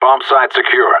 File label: bombsite clear, radio; GSG9